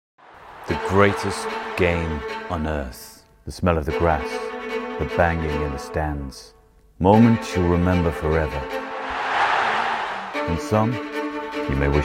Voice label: male english with soft liverpudlian accent football pools commercial